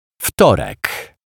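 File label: "Wtorek", Polish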